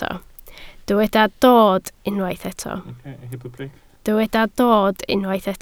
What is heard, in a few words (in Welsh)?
"dod" Welsh